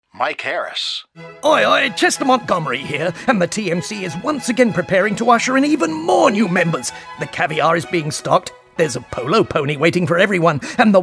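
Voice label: Male Talent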